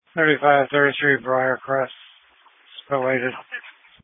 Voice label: Police Dispatch